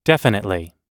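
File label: everyday agreeing, American English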